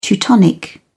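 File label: "teutonic", English, British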